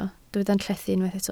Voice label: "llethu", Welsh